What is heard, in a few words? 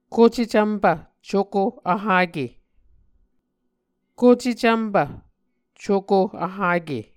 "kucecvmpv cuko vhake", Muscogee; kocecvmpv-cuko-vhake; Mvskoke Words with Voicing Consonants; A Dictionary of Creek/Muskogee; SIL International